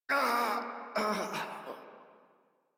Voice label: Death Modular Corpus Male; Dialog; Barks; Battle; Warframe